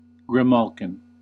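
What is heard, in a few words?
"grimalkin", US English; pronunciations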